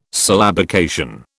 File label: "syllabication", British